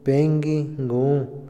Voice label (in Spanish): regresar a casa, Otomí, hombre; Movimiento; INALI